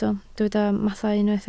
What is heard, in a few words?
"mathau", Welsh